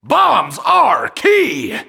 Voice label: Bombs Are Key Voiceover Horse Pill